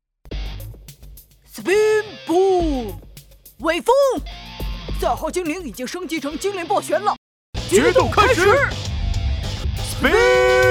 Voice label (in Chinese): 动画 动画片 15-18岁少年男